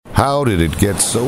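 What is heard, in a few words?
english american male voice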